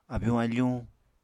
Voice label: "abyuñ-afuñ", apatani